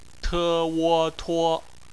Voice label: "tuo", Chinese; BPMF spelling; phonetics